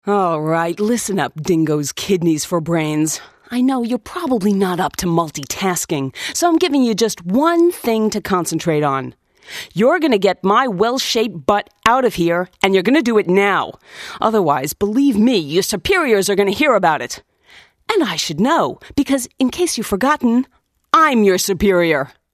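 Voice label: radio play; hoerspiel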